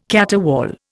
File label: "caterwaul", Indian